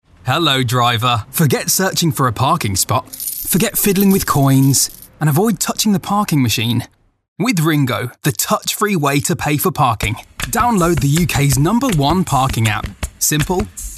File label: AD; RINGGO